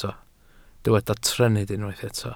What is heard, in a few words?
"trennydd", Welsh